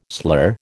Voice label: "slur", American